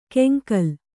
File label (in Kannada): "ಕೆಂಗಲ್", Kannada